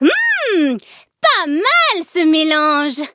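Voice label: level up, French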